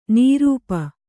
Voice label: "ನೃಪ", Kannada

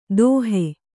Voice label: "ತೋಹೆ", Kannada

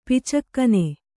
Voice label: "ಪಿಚ್ಚುಗಣ್ಣು", Kannada

